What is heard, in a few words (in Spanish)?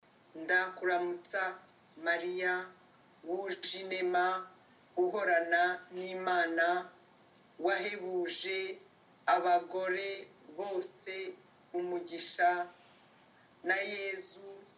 ave maria Kinyarwanda pausado